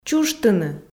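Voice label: "чужтыны", Komi, female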